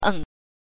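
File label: "eng", Mandarin Chinese pinyin, neutral tone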